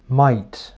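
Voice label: "might", English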